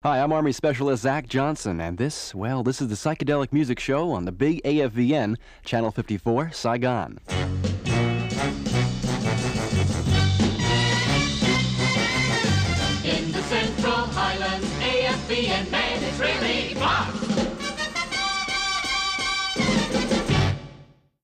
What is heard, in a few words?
Radio Spot: Station Id; Radio Spot: 'psychedelic Mucis Show' And Afvn Station Id. Mono